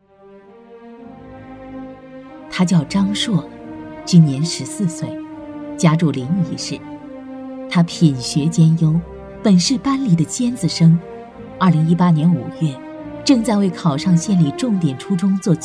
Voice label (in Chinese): 女 人物解说; 感动人物